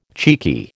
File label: "cheeky", American